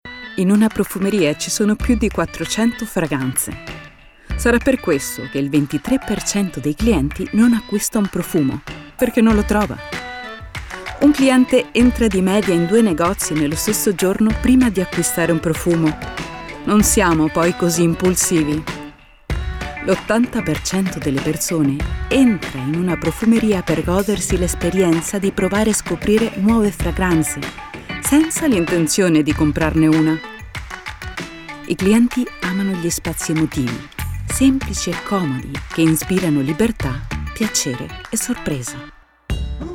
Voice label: female italian comercial; ideasonora